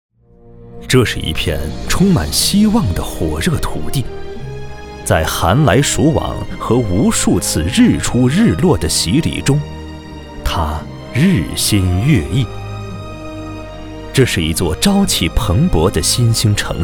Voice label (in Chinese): 专题片解说词; 国网党建工作